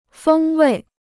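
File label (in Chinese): "风味" Chinese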